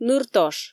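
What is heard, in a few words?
"ныртош", Komi, female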